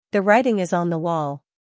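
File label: "humor", British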